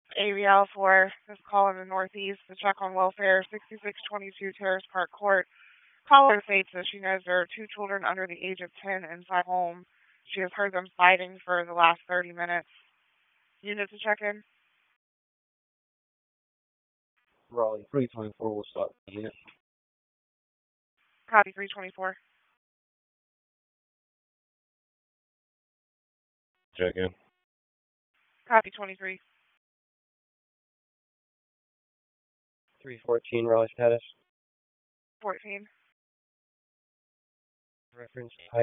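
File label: Police District Dispatch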